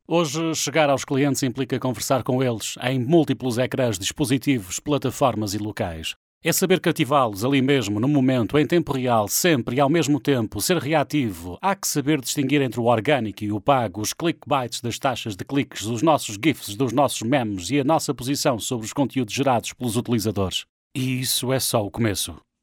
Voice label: portuguese voice natural